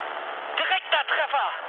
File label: bomb success, mood med, speech ge; War Thunder